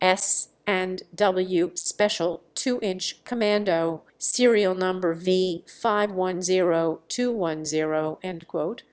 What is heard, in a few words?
autotune; AudioPerturber